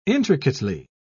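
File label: "intricately", English, uk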